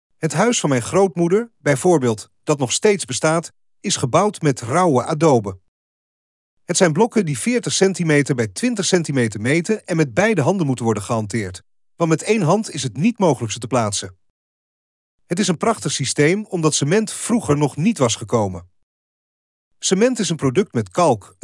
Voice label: DUTCH; adobe; Casa Terracota